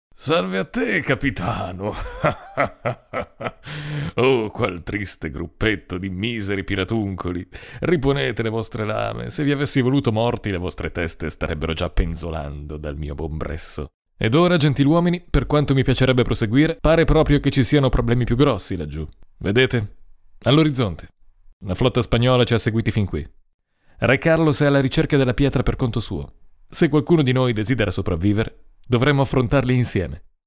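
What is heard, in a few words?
Italian, male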